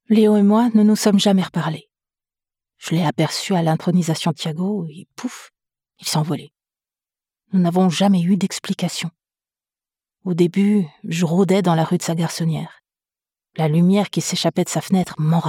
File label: Short Dialogue